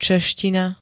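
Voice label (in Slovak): "čeština", Slovak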